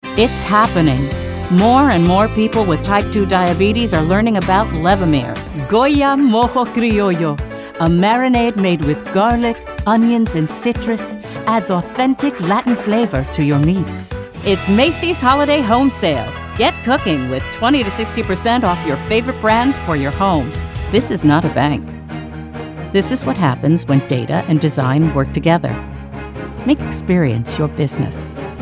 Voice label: commercial women